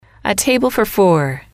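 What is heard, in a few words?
"a table for four", female, English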